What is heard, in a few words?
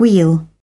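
"wheel", British English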